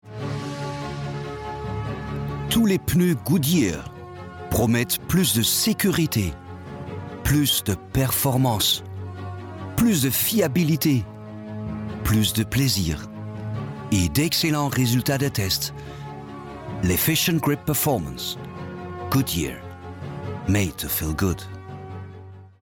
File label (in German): franzoesisch berlin